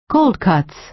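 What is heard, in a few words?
"cold cuts", American English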